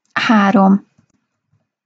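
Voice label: "harom", Hungarian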